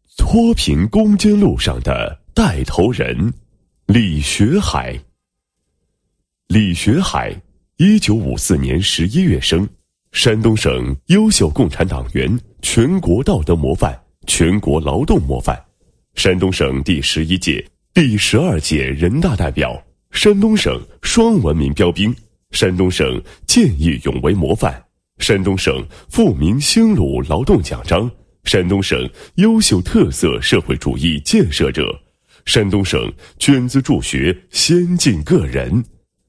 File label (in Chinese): 男 人物 脱贫攻坚路上的带头人